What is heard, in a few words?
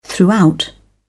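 "throughout", British English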